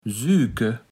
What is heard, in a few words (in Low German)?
"süke", platt